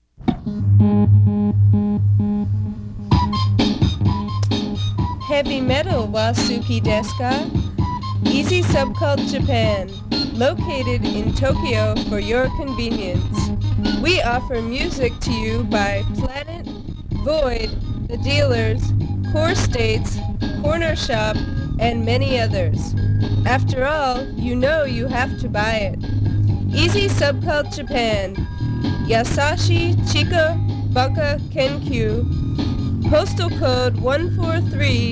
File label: Commercial